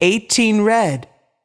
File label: voice over, roulette; frenchroulette3